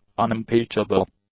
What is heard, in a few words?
"unimpeachable", english; adjectives